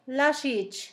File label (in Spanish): cebolla, Zapoteco, San Pedro Mártir; Comestibles; INALI